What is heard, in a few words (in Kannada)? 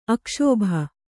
"ಅಕ್ಷೋಭ", Kannada